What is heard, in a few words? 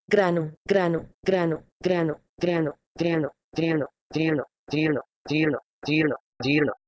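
Proto-Indo-European grh2no to Sanskrit jirna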